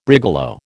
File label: "brigalow", American